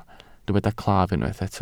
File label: "claf", welsh